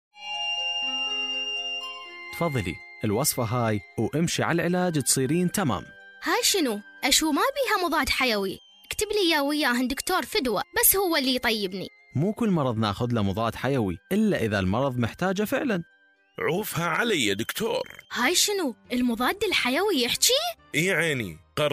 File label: AMR Radio Spot, Iraqi